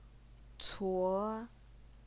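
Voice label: "cuo", second tone, Chinese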